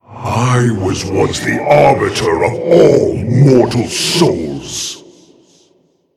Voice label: Demon Picked